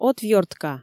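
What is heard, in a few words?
"отвёртка", Komi, female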